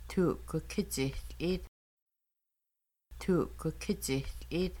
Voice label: Making Bannock We 3 are pouring water, Kaska